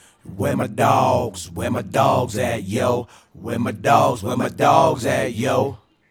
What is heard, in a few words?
Male Vocal